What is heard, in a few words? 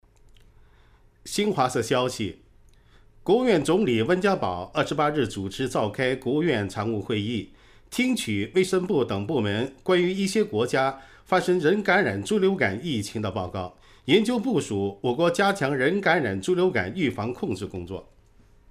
Mandarin